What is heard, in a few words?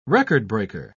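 "record-breaker", British English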